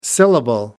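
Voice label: "syllable", British English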